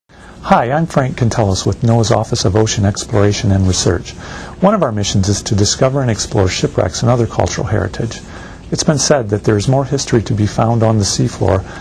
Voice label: podcast